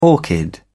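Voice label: "orchid", English, British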